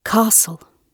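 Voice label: castle, British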